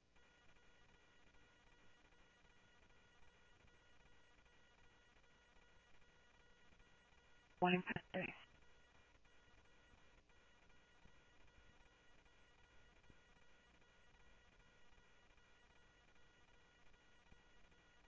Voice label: scanner audio trunk